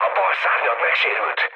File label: left wing, mood low, speech hun; War Thunder